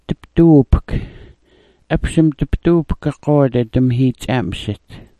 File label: "dpduupk", smalgyax